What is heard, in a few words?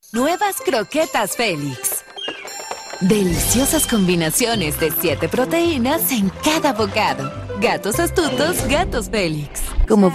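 female, Latin American Spanish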